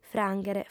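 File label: "frànghere", Sardinian, female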